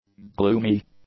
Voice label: "gloomy", english; adjectives